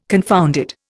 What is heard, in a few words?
"confounded", Indian